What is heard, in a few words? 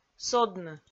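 "содны", Komi-Zyrian, female; kpv audio dict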